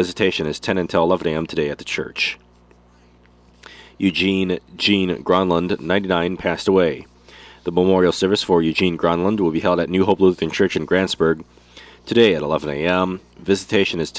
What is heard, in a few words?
Funeral Announcements